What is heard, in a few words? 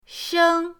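"sheng", Mandarin pinyin, first tone; pinyindct-open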